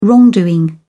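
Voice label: "wrongdoing", English, British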